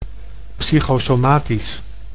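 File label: "psychosomotisch", Dutch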